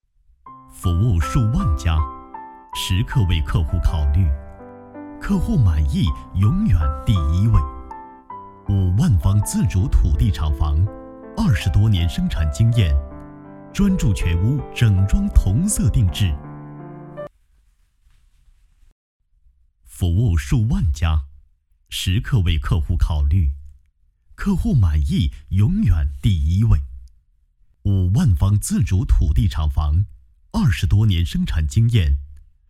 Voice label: 广告试音

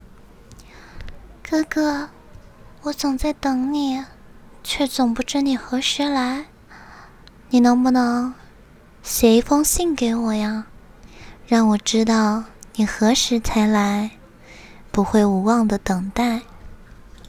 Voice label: 少女音

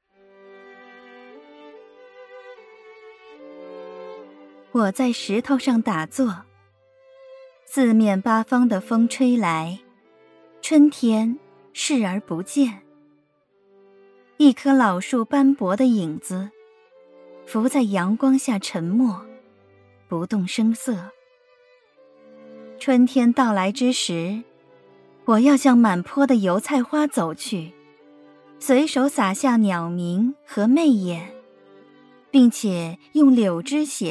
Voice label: 诗歌

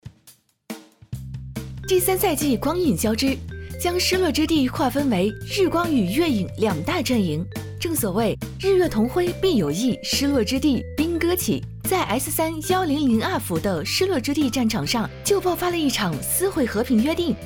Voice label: 游戏宣传欢快

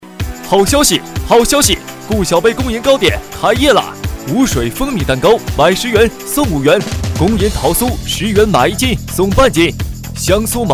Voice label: 蛋糕店宣传

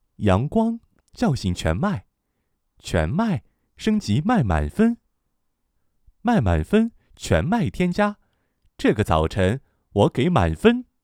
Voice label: 广告配音阳光

